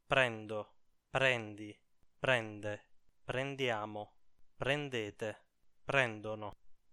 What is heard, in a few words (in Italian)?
prendere coniugazione, Italian